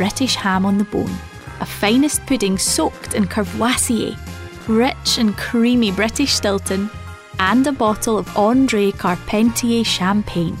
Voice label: Christmas Commercial; Tesco